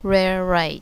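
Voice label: rear right; Abit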